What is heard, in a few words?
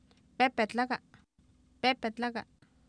"pEepetlAka", Oapan, female; Oapan minimal pairs 1998